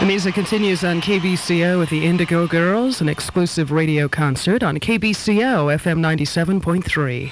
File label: station break